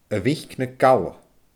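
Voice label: "a mhic na galla", Scottish Gaelic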